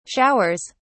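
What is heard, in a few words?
"showers", British English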